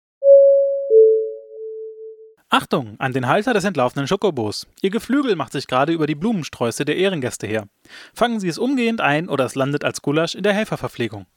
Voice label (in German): durchsage